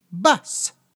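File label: "bus", English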